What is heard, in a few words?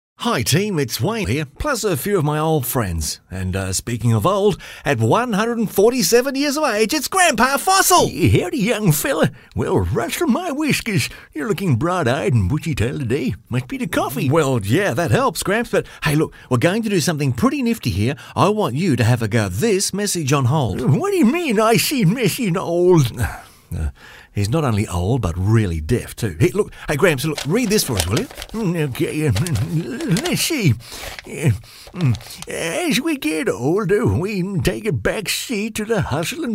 character